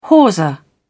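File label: "hawser", British English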